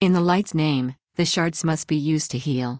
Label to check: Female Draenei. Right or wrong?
Right